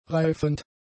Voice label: "reifend", German; adjectives